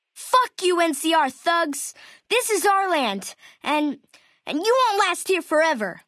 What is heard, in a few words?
male child take water; FNV